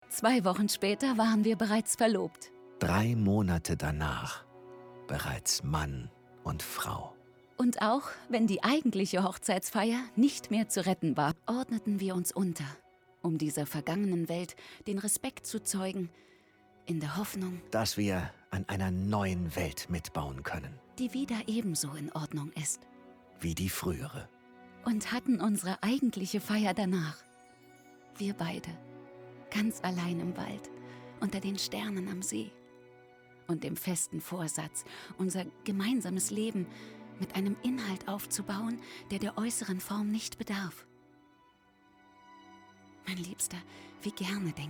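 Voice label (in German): Hörspiel; EPSILON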